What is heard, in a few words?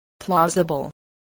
"plausible", English